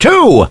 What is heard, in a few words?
count, French